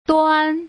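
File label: "duan", Putonghua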